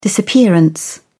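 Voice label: "disappearance", British English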